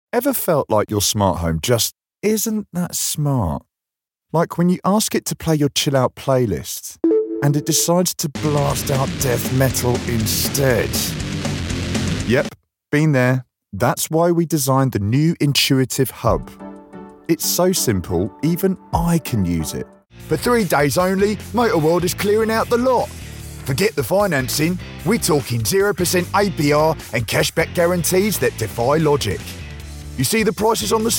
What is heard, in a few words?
London male commercial